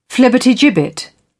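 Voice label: "flibbertigibbet", British English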